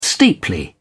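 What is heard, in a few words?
"steeply", British English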